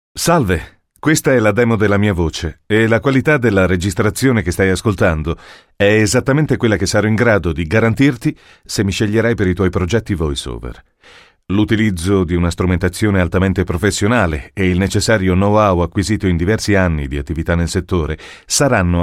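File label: Italian, male; character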